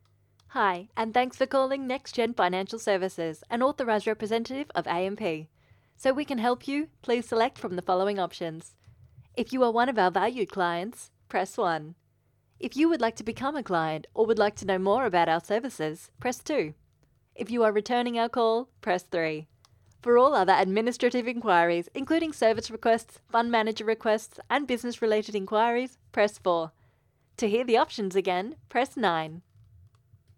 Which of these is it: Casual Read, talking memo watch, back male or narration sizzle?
Casual Read